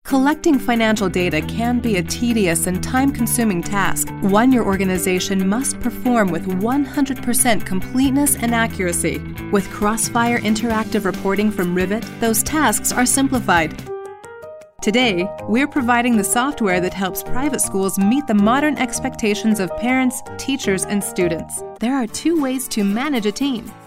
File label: english us f narration, voice demos